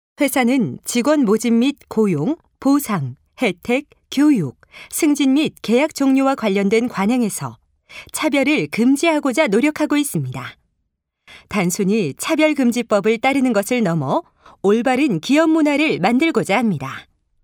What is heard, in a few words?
korean training e-learning